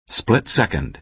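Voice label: "split second", American English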